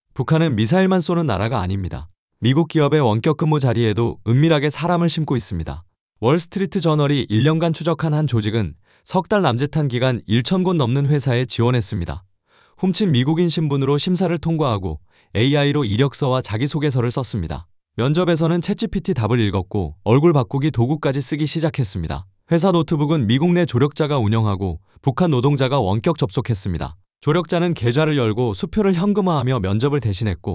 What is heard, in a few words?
narration